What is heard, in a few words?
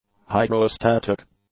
"hydrostatic", English; adjectives